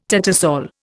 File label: "tattersall", Indian